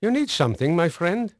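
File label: Dutch male, voice; Pirates Of The Caribbean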